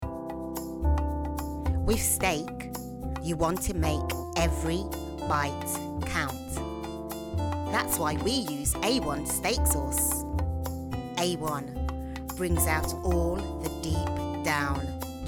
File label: english female voices british accent